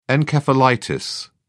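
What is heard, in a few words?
"encephalitis", English, British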